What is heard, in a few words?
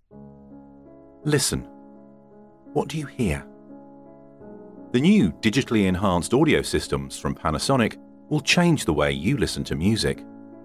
COMMERCIAL